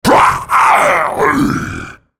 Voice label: dying, en US; Rengar